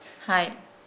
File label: "do", Nukuoro